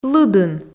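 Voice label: "лыдын", Komi, female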